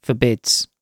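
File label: "forbids", British English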